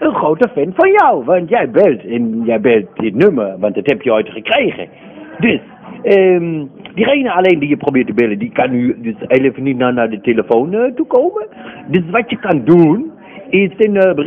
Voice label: Voicemail